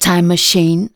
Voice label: "TIME MACHINE", SPOKEN; Techno Trance Essentials